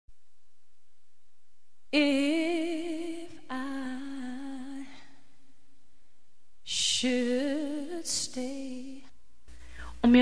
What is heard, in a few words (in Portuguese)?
ROM BOM DIA FEM; Bom dia boa tarde boa noite